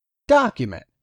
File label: "DOCUMENT", English; 3000 words